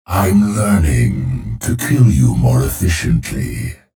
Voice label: chatter neutral; clutch